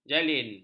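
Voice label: "jalin", Malay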